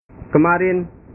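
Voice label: "kemarin", Indonesian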